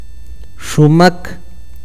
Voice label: flor, akateko

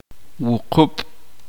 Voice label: uspanteko siete

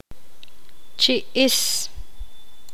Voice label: poqomam boca

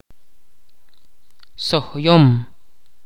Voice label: "agallas intestinos", Ch'orti'

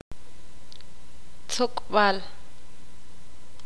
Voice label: "cuchillo", ixil

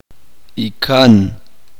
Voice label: "tio el hermano menor de su padre", uspanteko

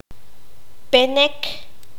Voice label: "rodilla", Q'anjob'al

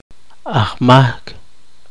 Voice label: culpable, poqomchi

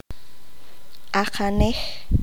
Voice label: "pie", Q'anjob'al

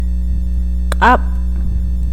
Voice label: "mano", ixil

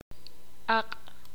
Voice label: "lengua", ixil

